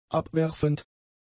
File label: "abwerfend", german; adjectives